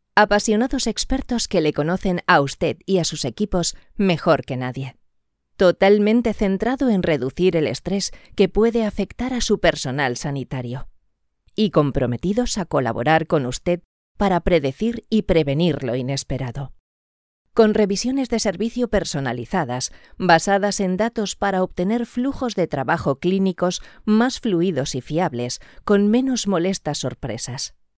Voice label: locutora spanish voiceover

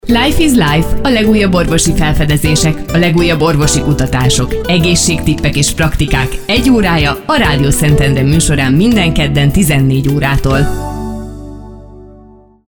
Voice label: locutora hungara hungarian voiceover

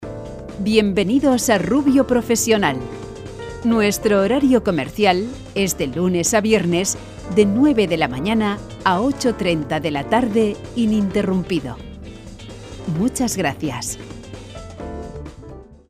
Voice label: locutora centralita telefono ivr voiceover

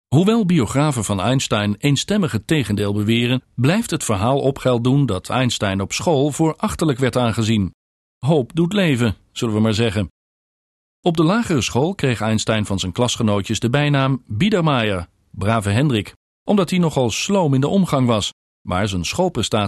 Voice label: locutor holandes dutch voiceover

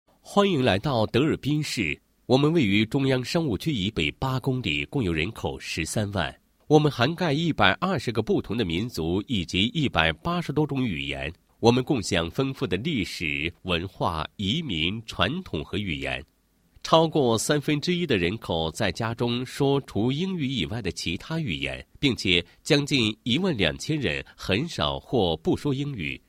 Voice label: locutor chino mandarin chinese voiceover

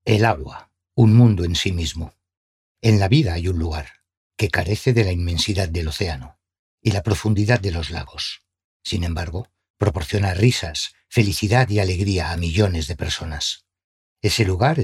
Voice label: locutor spanish voiceover

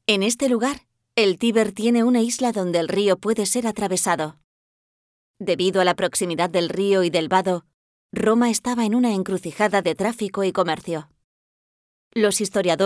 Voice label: locutora spanish voiceover

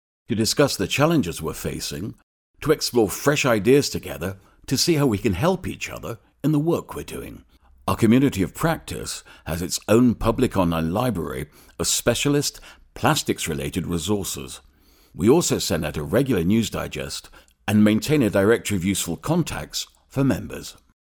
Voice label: english voiceover locutor ingles

